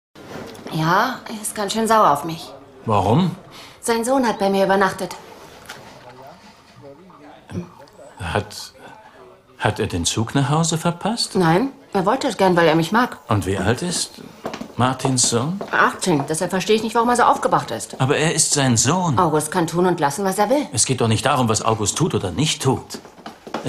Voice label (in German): Synchron; Die Bruecke Staffel 01